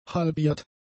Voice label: "halbiert", German; adjectives